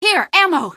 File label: ammo, female; npc; Garry's Mod; hl2